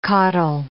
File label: "caudle", English